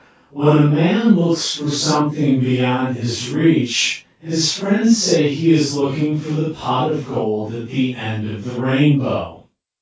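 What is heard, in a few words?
male speech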